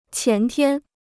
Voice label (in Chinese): "前天", Chinese